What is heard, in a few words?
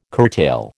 "curtail", American